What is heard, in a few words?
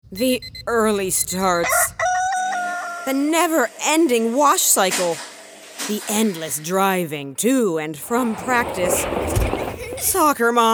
commercial reel, standard American accent; voiceover